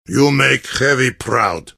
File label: heavy summary callout; compmode